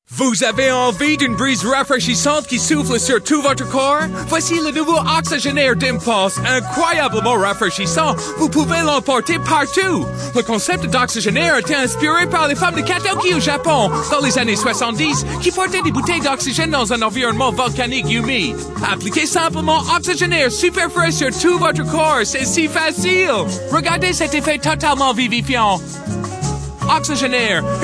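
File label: British; Oxygen air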